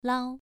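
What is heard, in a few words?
"lao", Mandarin Chinese, second tone, pronunciation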